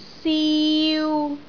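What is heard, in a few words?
"siu3", Cantonese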